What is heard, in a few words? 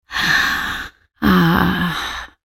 ultimate effort; Elise